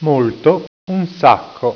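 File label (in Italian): "molto", Italian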